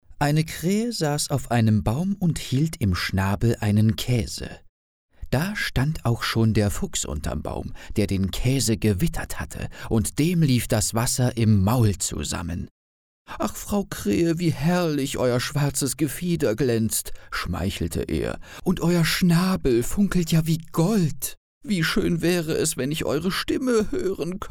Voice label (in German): Hoerbuch; FuchsKraeheKaese